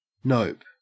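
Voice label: "nope", Australian English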